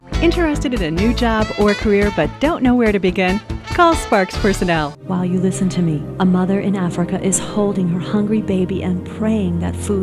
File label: Commercial